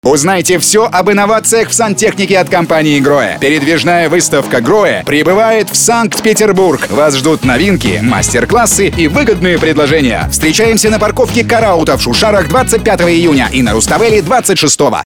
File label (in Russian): аудиореклама сантехники диктор; ГРОЭ; запись голоса монтаж сведение ролика